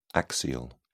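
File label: "axial", British English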